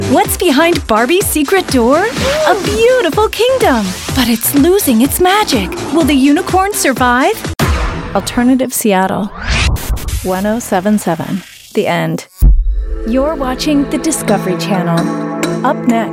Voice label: Promo